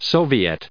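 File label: "soviet", US English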